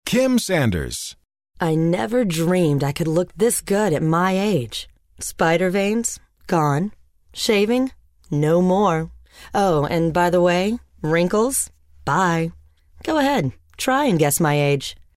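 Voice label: sensuous, voice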